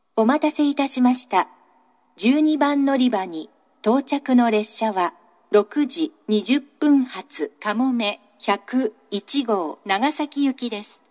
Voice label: 12番のりば接近放送; かもめ101号 長崎行き; shin omura

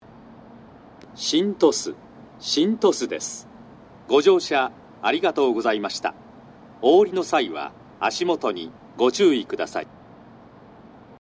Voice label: 到着放送 男声, 新幹線ホーム; 新鳥栖

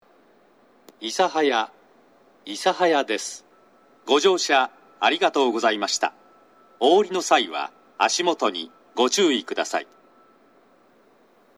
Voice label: 到着放送 男声, 諫早 西九州新幹線ホーム 自動放送

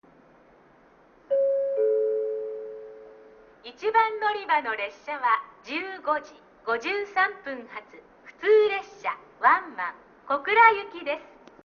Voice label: 1番のりば停車中案内放送; 普通ワンマン 小倉行き; 中津

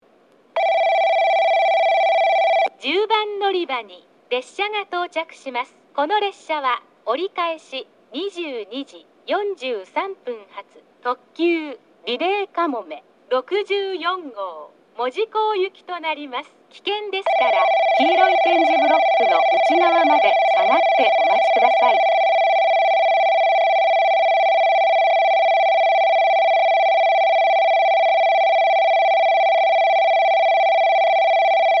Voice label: 10番のりば接近放送; リレー特急; 折り返し・リレーかもめ64号 門司港行き

